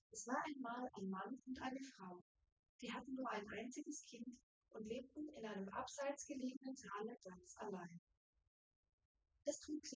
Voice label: female4 synthconv; SiSEC08; SiSEC underdetermined

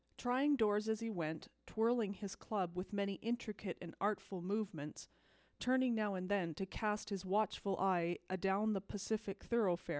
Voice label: female inst src

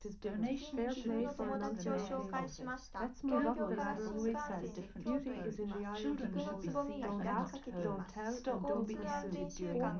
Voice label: female liverec mix

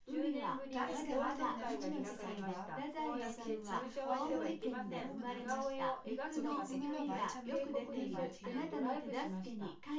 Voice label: female synth conv mix

